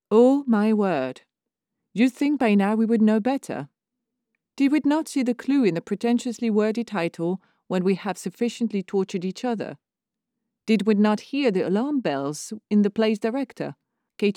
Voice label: English Narrative Sample